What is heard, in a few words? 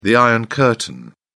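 "iron curtain", British English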